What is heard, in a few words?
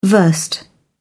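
"versed", British English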